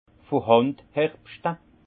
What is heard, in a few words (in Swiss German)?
"handherbschte", alsace; vin; vendanges manuelles